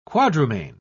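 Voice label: "quadrumane", English, British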